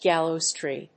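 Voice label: "gallows-tree", English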